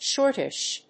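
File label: "shortish", English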